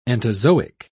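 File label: "entozoic", English, British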